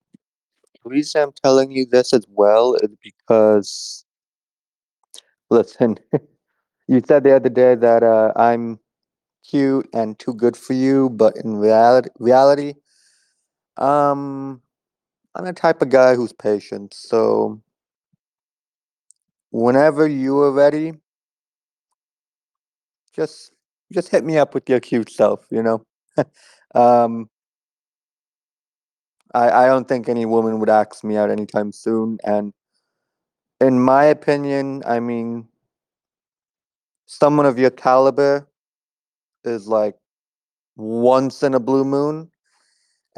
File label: voice message